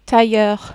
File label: "tailleur", French